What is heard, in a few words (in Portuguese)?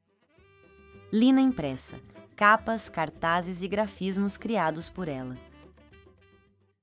narracao; lina impressa